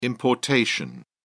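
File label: "importation", British English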